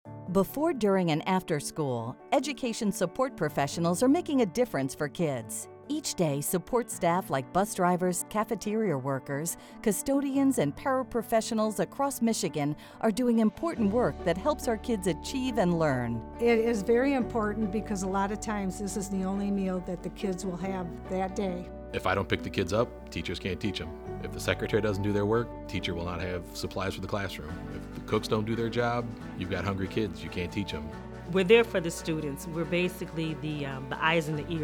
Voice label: Radio